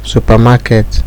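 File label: "supermarket", Nigerian Pidgin, male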